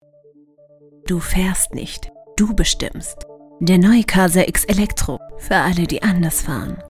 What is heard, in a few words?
commercial; quasar x